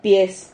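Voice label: "piez", Spanish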